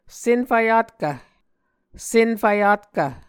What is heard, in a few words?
"senfvyatkv", Muscogee